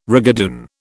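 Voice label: "rigadoon", British English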